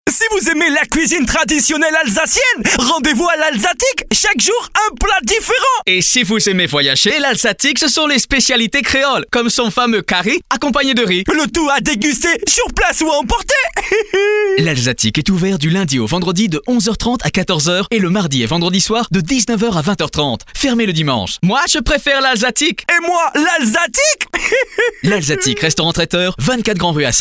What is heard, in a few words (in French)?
radio pub spot